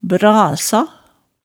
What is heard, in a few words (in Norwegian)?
"brasa", hemsedalsmaalet tale